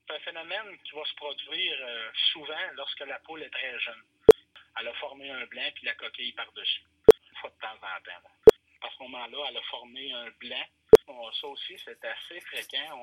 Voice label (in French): nasales